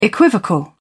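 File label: "equivocal", British English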